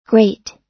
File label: "great", us